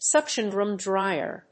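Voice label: "suction drum dryer", English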